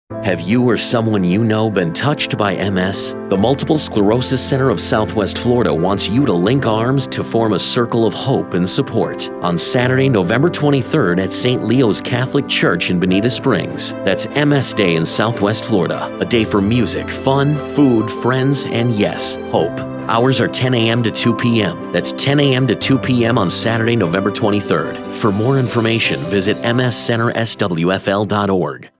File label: PSA VO